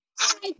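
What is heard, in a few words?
Voice Acting es, female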